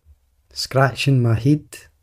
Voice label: Scratching my head, glaswegian